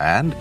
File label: and, announcer